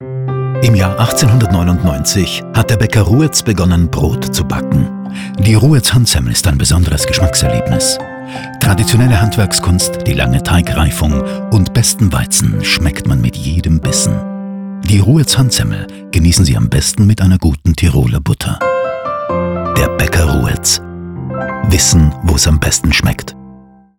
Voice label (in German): Radiospot; wissen wo's am besten schmeckt; Semmel; Bäcker Ruetz